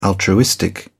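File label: "altruistic", British English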